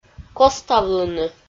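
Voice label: "коставлыны", Komi, female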